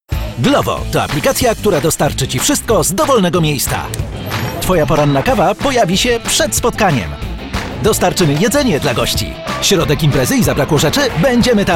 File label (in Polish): Lektor spoty szybkie; Studio Nonagram